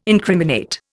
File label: "incriminate", Indian